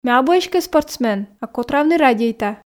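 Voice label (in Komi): ме абу эськӧ спортсмен а котравны радейта, Komi, female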